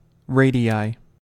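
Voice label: "radii", US English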